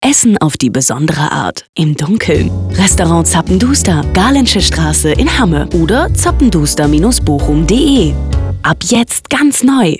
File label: radio spot